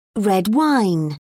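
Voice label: "red wine", English, British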